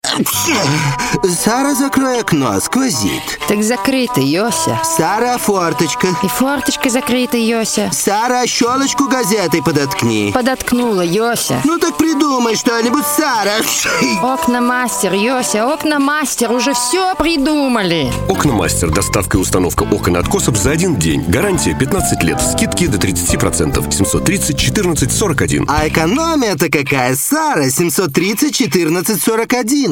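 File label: Russian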